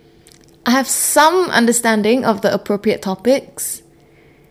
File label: interviewee female